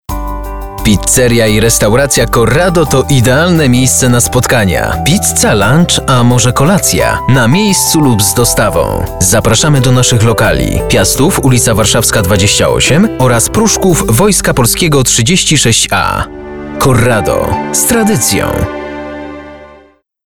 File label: spot